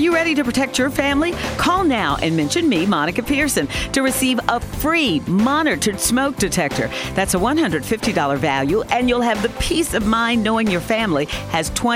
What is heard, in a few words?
Loud Security Systems Spot Live Person